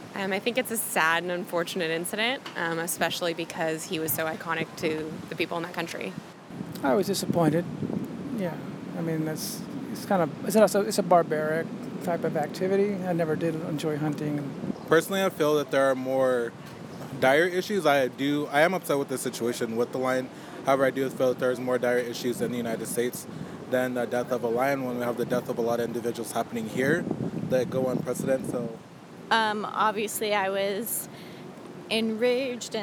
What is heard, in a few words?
voxpop; cecil the lion